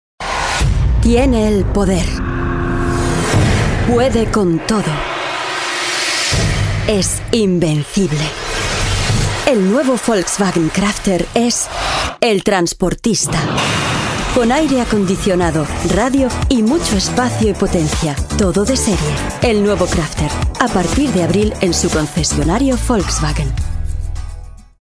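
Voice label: Spanish, Werbung Dynamisch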